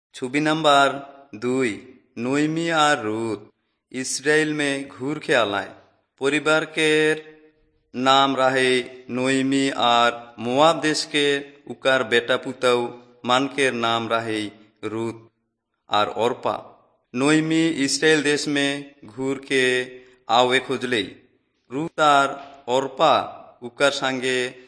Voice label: servants of god urao, kurukh drama

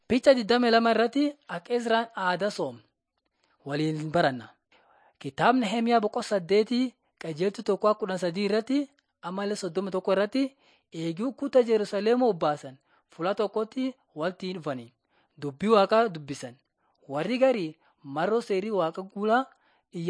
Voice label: borana sakuye; laali chaqasi jiradin 5 jilalacha waaqat on trial for god

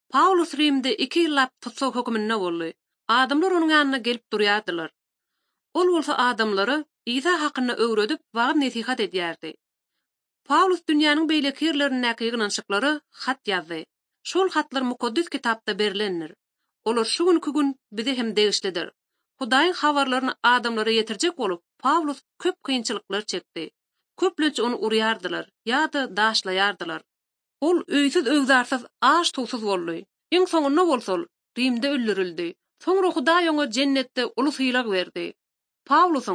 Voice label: turkmen, bible translation drama; ot drama; turkmen institute for bible translation; acts of the holy spirit

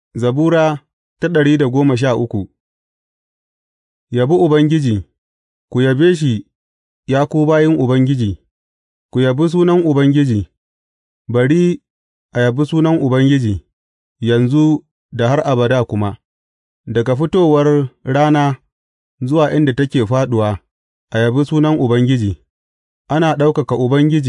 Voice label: hausa bible drama, psalms; hausa bsn nt drama

